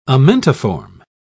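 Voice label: "amentiform", English, British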